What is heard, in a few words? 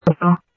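Sheriff Lake Patrol, scanner audio trunk; ThompsonPeakScot; MCSO Lakes 1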